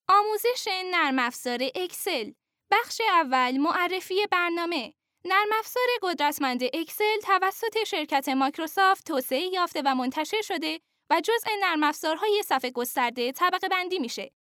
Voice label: female farsi voice over narrative